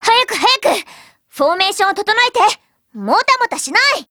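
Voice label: meet, Japanese; QBU88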